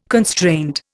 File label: "constraint", Indian